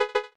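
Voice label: voice Korean, keytone; lowbattery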